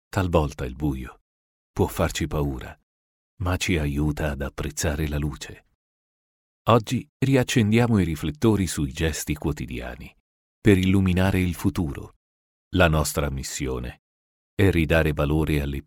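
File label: male voice, Motivational, Italian; GoLocalise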